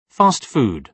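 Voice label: "fast food", British English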